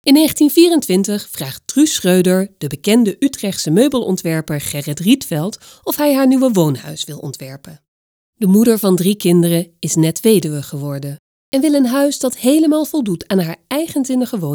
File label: Audiotour; Rietveld